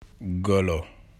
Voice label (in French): "Singe" Wolof